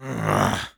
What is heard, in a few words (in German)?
negative vocalization; Sniper